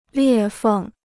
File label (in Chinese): "裂缝", Chinese